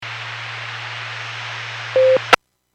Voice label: Skywarn 146.67; Stevens Point